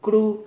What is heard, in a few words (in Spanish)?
"cru", Silabas